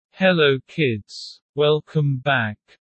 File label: low; Animal friends